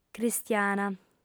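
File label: "cristiana", female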